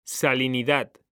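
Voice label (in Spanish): "SALINIDAD", Spanish; mekanta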